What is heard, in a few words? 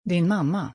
"Din mamma", Swedish